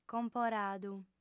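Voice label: "comporadu", female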